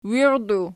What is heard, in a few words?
"wirdu", Archi